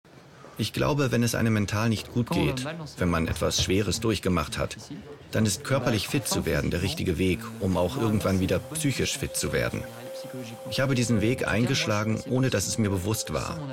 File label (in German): Voiceover; Resilienz; ARTE